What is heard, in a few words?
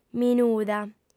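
"minuda", female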